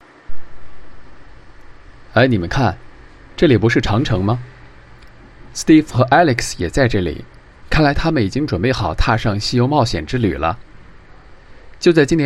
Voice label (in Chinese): 男配音, 青年, 普通话, 年轻磁性; 我的世界